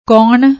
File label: "kaun", Punjabi; ਕੌਣ